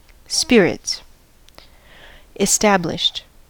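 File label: "spirits", American English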